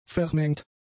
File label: "vermengt", German; adjectives